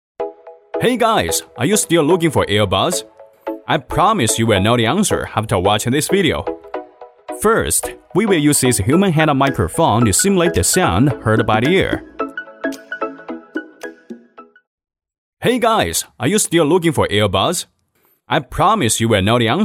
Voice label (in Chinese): 男 英语 MG动画 激光; 科淼激光